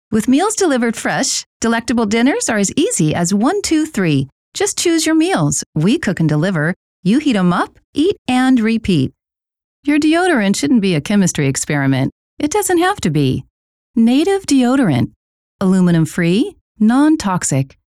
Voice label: Commercial Vox Only

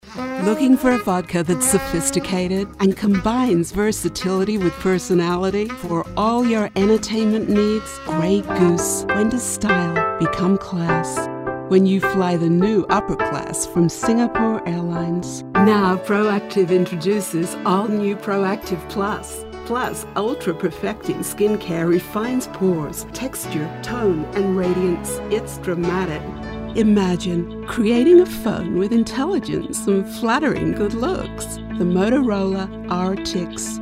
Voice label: Mid-Atlantic Global

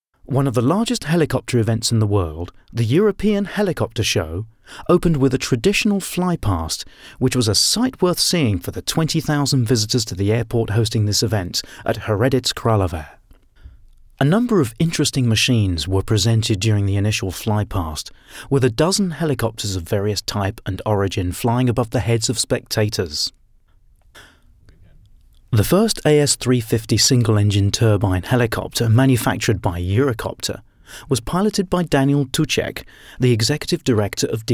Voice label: Docu

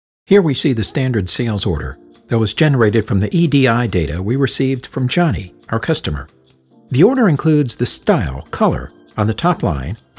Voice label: explainer

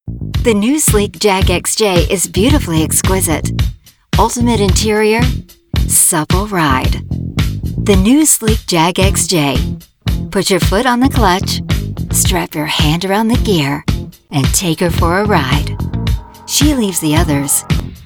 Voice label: Business and Commercial

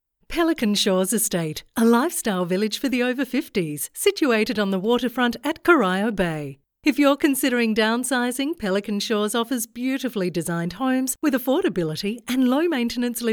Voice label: TVC

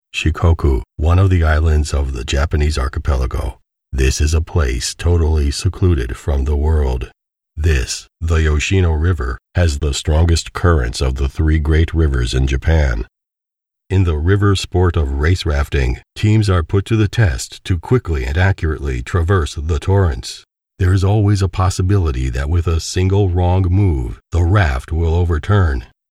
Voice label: recorded in home studio

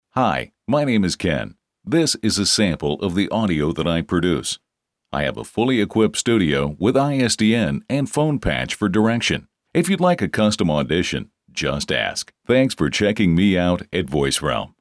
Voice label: Studio Quality

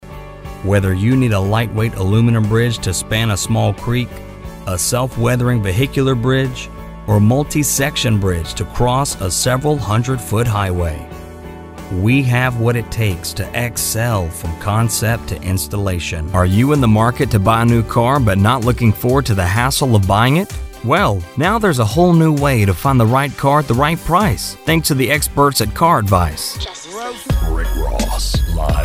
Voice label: TV COMM

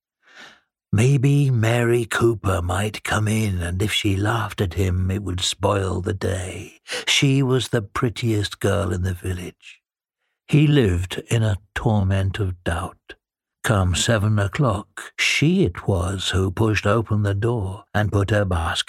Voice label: Audio Book; Stale; ACX

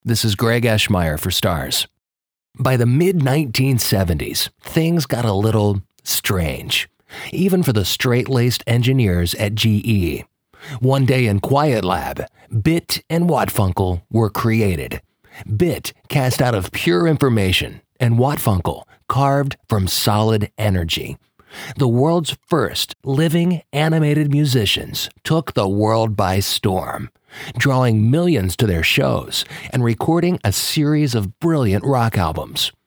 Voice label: Narrator

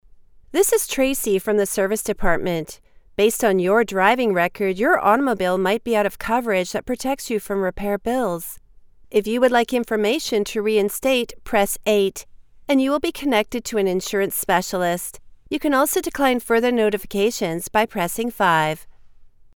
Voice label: IVR sample

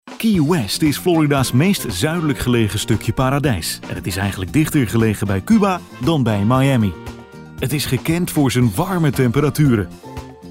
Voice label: Documentary Dutch

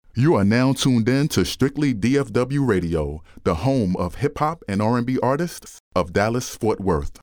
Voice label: radio; DFW

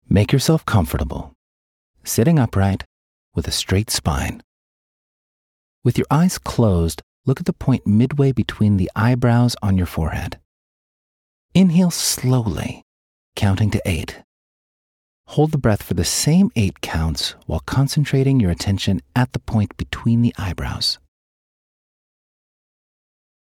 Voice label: Meditation

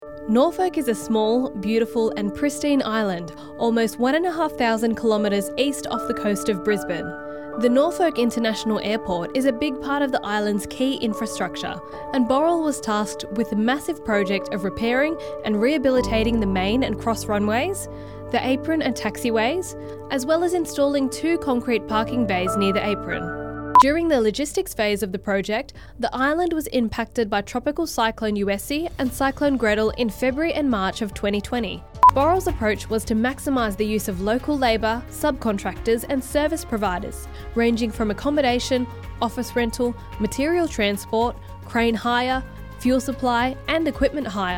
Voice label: Documentary sample

